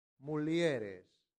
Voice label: "mulieres", latin; santillana

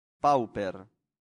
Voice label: "pauper", latin; santillana

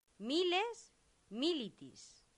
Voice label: "miles militis", latin; santillana

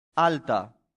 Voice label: "alta", Latin; santillana

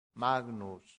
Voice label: "magnus", Latin; santillana

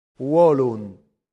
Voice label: "volunt", latin; santillana

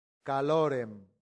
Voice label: "calorem", Latin; santillana

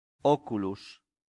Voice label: "oculus", latin; santillana

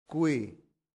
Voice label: "qui", latin; santillana

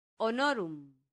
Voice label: "honorum", latin; santillana

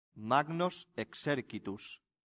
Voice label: "magnos Exercitus", Latin; santillana

